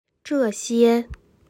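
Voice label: "这些", Chinese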